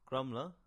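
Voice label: "Grumbla" English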